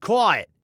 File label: "Quiet", Male